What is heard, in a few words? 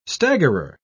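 "staggerer", British English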